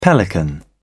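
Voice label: "pelican", English, British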